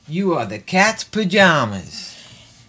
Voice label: Catz Pajamas, Spoken Hip Phrases